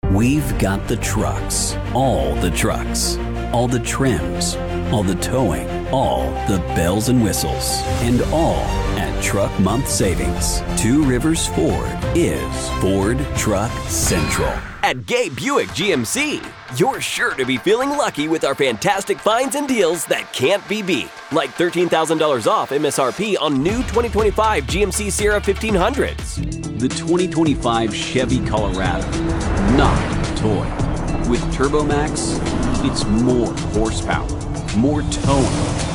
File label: Car Spots, car commercial voiceover